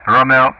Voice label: "Romeo", Speech ENG; Ka-50; Alphabet

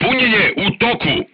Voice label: voice message reloading, crew tanks serbian

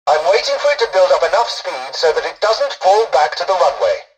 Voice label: tutorial dialogue, english; War Thunder

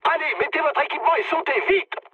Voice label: bailout mood med, speech fr; War Thunder

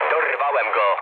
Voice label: player killed enemy mood low, speech pol; War Thunder

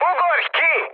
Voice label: bailout, speech hun; War Thunder

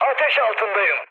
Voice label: on fire, mood med, speech tur; War Thunder

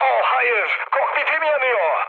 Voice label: on fire, mood high, speech tur; War Thunder

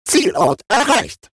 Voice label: robot Autopilot Destination; World Of Warships

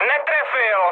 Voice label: bomb failure, speech cze, mood high; War Thunder

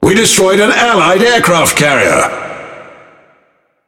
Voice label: Speech Destroy Ally Aircarrier, EN GB; World Of Warships

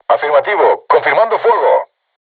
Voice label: voice message art shoot, crew tanks spanish

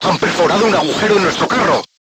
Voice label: voice message player tank hit, crew tanks spanish

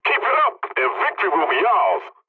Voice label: pilot tickets lead continue ally, english; War Thunder

